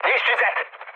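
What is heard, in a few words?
attack position, mood high, Hungarian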